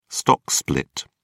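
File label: "stock split", English, British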